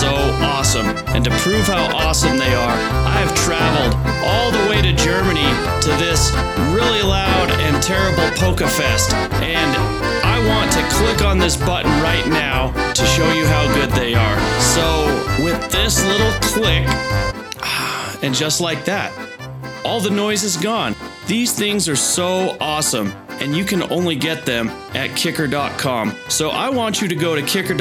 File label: Audio commercial; Kicker